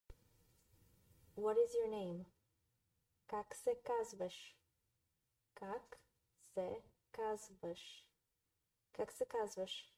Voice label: What is your name, Bulgarian; the talking bulgarian phrasebook

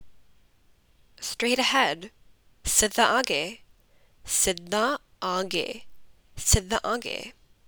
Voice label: Punjabi Straight ahead

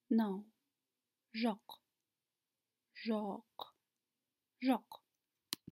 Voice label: "No", Kazakh

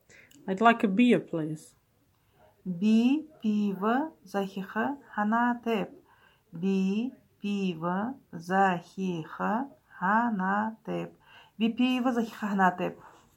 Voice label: Id like a beer please, Buryat

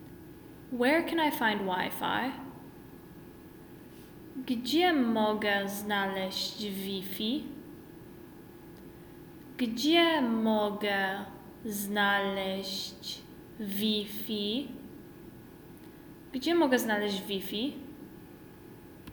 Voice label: Pol Where can I find wi-fi

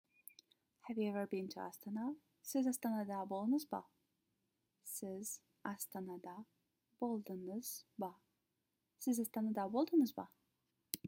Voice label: Have you ever been to Astana, kazakh

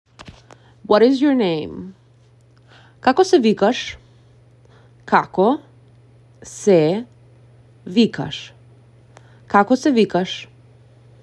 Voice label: What is your name, Macedonian; the talking macedonian phrasebook

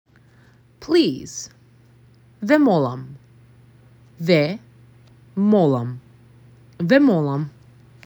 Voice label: "Please", macedonian; the talking macedonian phrasebook

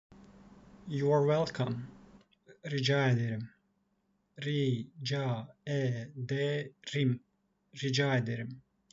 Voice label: "you are welcome", Turkish; the talking turkish phrasebook

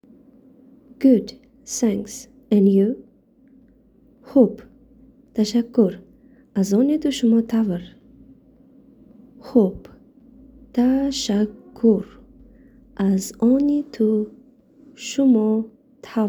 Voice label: Good Thanks and You Tajik

